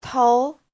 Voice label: "tou2", Chinese; Giáo trình Hán ngữ

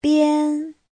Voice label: "bian1", Chinese; Giáo trình Hán ngữ

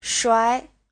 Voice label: "shuai2", Chinese; Giáo trình Hán ngữ

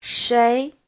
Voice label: "shei2", Chinese

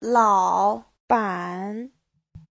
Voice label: "lao3 ban3", chinese; 老板; ông chủ

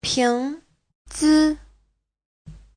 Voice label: "ping2zi5", Chinese; 瓶子; (danh từ) lọ, bình; Giáo trình chuẩn HSK3

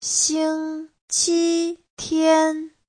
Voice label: "xing1qi1tian1", Chinese

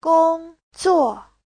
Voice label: "gong1 zuo4", chinese

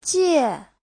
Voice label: "jie4", chinese; 借; (động từ) mượn, vay; Giáo trình chuẩn HSK3

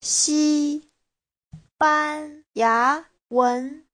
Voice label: "xi1ban1ya2wen2", Chinese; 西班牙文 : (danh từ) tiếng Tây Ban Nha

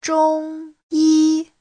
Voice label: "zhong1yi1", chinese; 中医

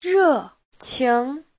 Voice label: "re4qing2", Chinese

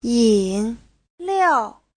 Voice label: "yin3 liao4", Chinese

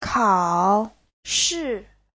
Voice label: "kao3 shi4", chinese

